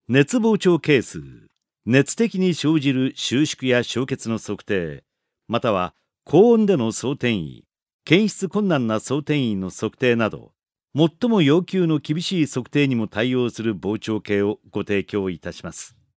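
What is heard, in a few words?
Japanese elearning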